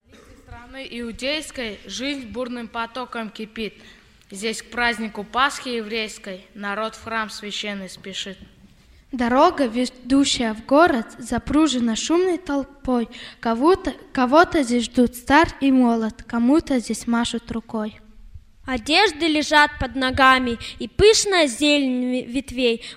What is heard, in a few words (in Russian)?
Декламация; Пасхальные Собрания Ташкент-2009; Вербное Воскресение - Утро